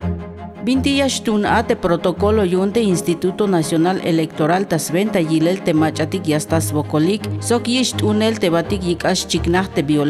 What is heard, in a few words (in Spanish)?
TZELTAL